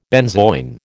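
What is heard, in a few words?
"benzoin", American English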